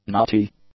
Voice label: "knotty", english; adjectives

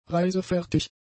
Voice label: "reisefertig", German; adjectives

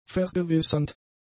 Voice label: "vergewissernd", German; adjectives

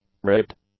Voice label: "ribbed", English; adjectives

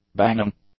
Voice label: "banging", English; nouns

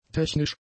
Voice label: "technisch", German; adjectives

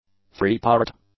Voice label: "threepart", English; adjectives

